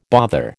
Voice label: "bother", American

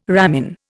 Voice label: "ramin", Indian

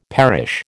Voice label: "perish", American

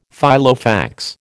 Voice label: "Filofax", American

